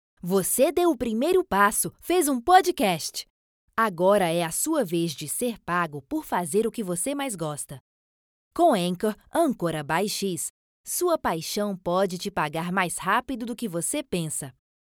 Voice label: portuguese brazilian podcasts